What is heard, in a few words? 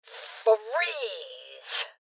Voices Freeze Female Old